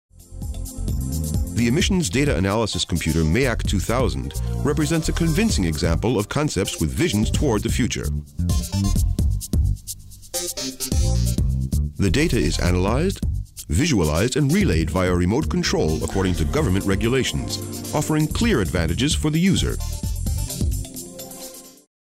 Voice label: Imagefilm; LOFT VOICES

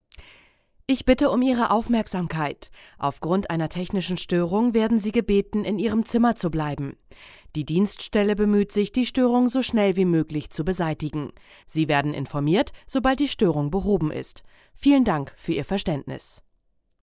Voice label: amokalarm neutral; LOFT VOICES

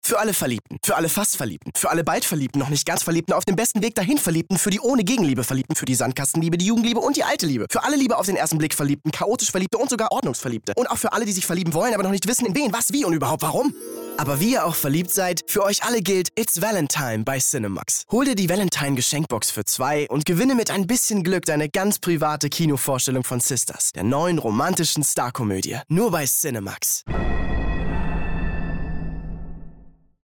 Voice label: valentime funkspot; cinemaxx

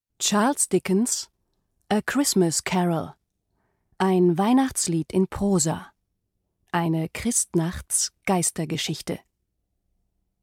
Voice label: Hoerbuch; Charles Dickens; Christmas Carol; Radioropa Hoerbuch

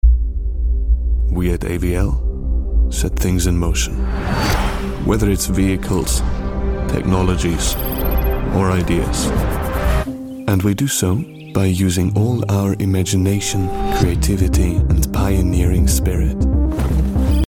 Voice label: Stimmprobe werbung englisch; AVL

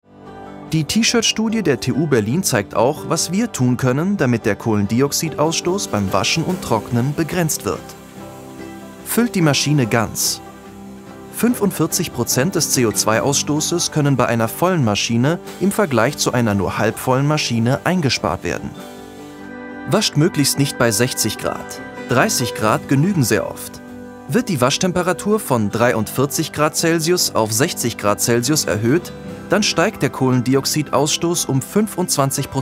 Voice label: Erklaerfilm Oekobilanz

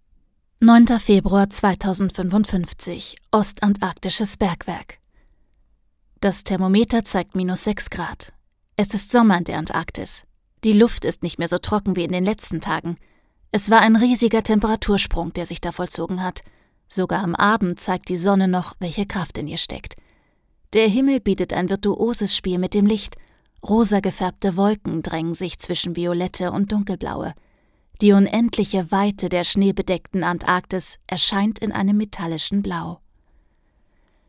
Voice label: Hoerbuch, Audiobook (Hörbuch), German; Die verlorenen Jahreszeiten Auszug

